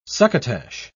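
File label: "succotash", British English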